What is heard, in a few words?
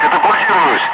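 Russian speech, Ka-50 Wingman; Messages ejecting; DCS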